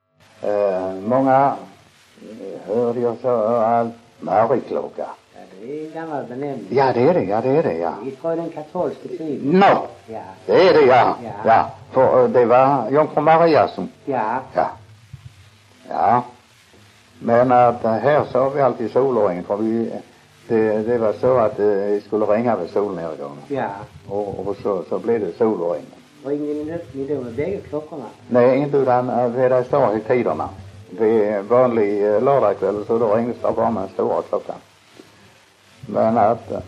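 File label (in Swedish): Munka-Ljungby Skåne, dialekter svenska sagor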